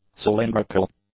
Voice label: "cylindrical", English; adjectives